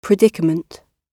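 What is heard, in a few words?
"predicament", British English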